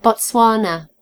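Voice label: "botswana", British English; gcompris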